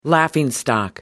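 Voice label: "laughingstock", British English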